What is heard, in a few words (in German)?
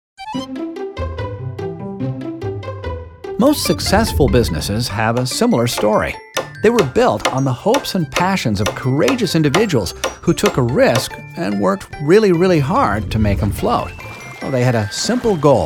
Erklarvideo Profi Publikum, NATIVE PROFISPRECHER